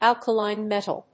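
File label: "alkaline-metal", English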